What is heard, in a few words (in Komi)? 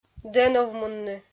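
"дӧновмунны", Komi, female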